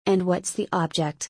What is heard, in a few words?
whats the object, en